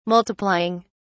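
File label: "multiplying", English, British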